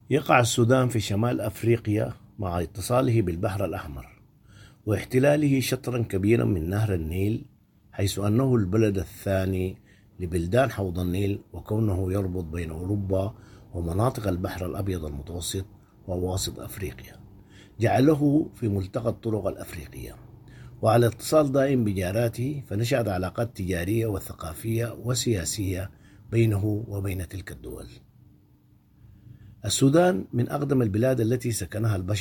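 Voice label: Arabic, General Standard; GoLocalise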